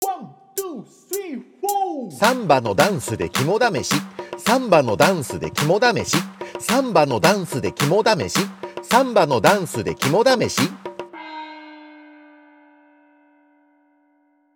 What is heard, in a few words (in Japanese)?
早口言葉リズム（中級編）